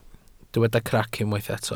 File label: "crac", welsh